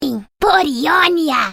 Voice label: voice portugues brasileir; kennen